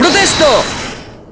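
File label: "Objection", Spanish; Klavier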